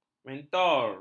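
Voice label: "mentor", Malay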